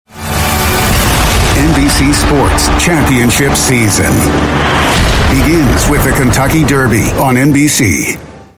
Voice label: US English Sports Championship Season; NBC